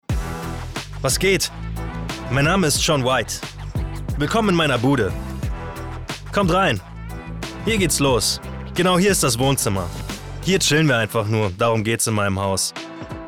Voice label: Voice Over, Overlay, Narrative, German; Voice Over | Cribs